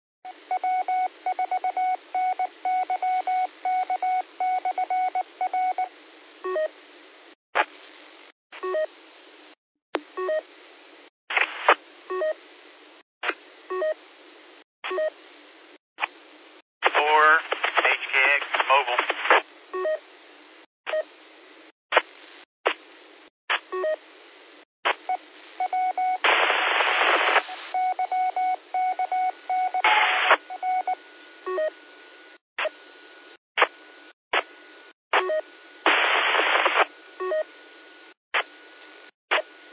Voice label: qso rec simplex logic